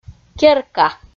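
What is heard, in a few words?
"керка", Komi, female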